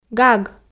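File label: "гаг", Komi, female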